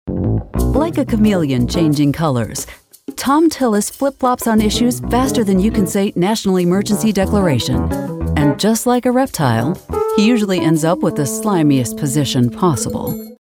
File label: Smear Ad